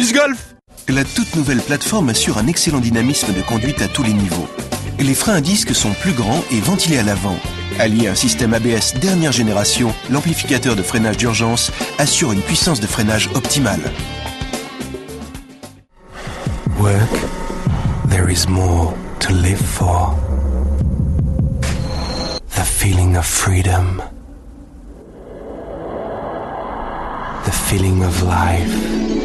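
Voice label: French general; GoLocalise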